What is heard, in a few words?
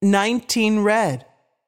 voice Over, roulette; american roulette 3